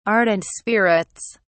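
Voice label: "ardent spirits", English, British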